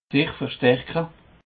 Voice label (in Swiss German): "verstaerke", alsace